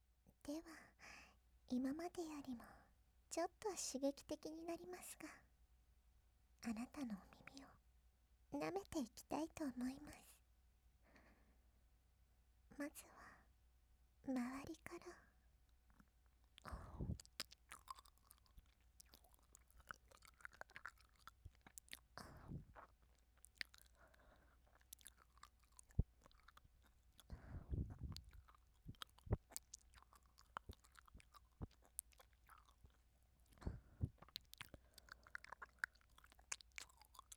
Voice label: 耳舐め・乳首弄り, ASMR; 身も心も解したいんです

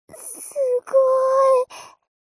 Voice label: mob 女子 台詞

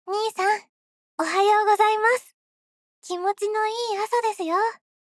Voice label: アラーム用ボイス, 世話焼き妹ちゃん; なないろボイス

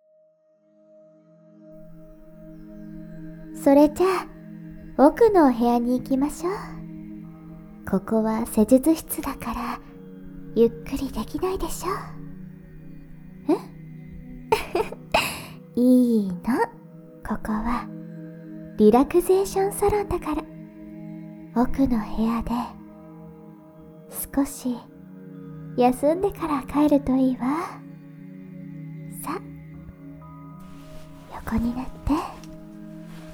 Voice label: 添い寝・安らぎの一時, ASMR

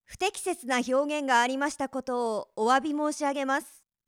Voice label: 不適切な表現がありましたことをお詫び申し上げます。, ナレーション

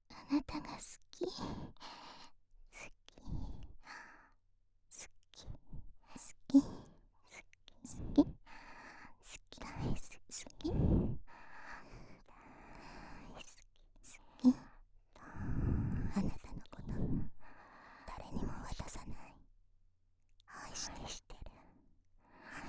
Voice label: 愛を囁かれ トラック

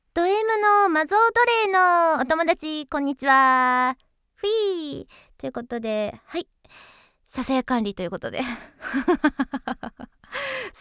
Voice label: コメント, ASMR

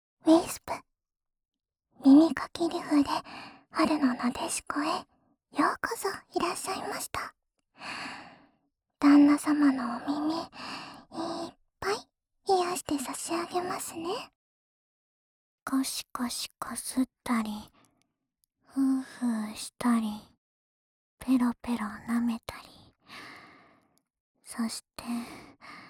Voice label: タイトルコール, ASMR

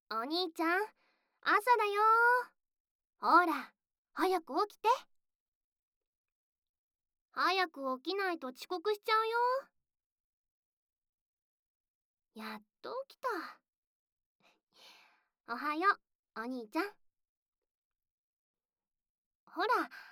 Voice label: 通常妹 シンプル目覚まし; 目覚まし音声

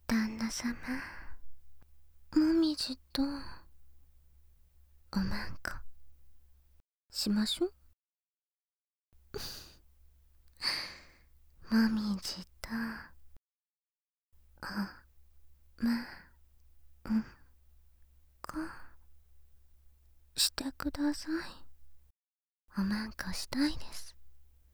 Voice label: おま○こしよ♪ はにかみ

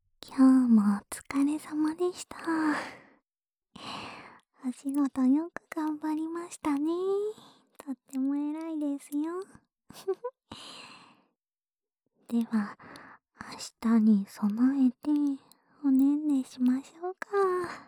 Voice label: 安眠用添い寝ボイス

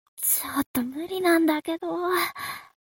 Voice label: mob 女子 台詞

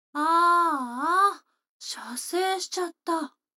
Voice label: 女の子 台詞, ASMR

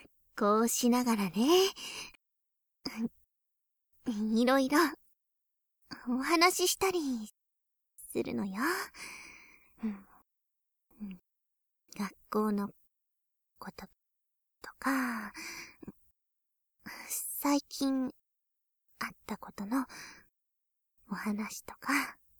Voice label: 膝枕耳かき(効果音なし); 真緒、修行の日々。

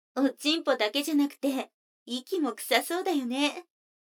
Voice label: 女の子 台詞

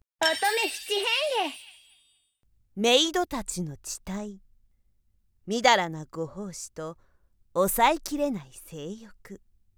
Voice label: タイトルコール

